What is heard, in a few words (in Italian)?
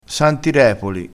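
"santirepoli", vocabolario dialettale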